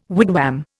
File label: "wigwam", Indian